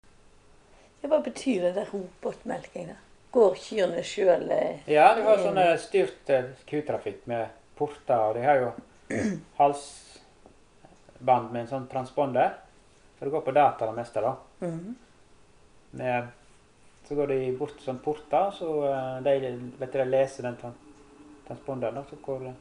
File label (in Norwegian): Granvin, dialekt